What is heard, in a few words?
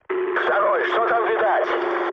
chat, speech ru